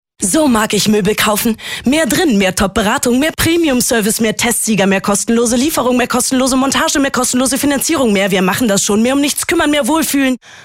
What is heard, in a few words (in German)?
Radiospot